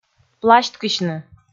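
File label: "пласьткысьны", Komi, female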